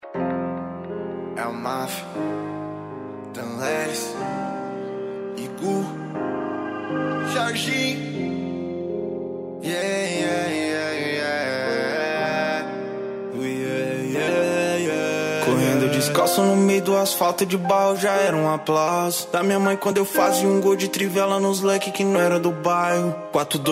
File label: rap